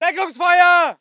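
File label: voice german infantry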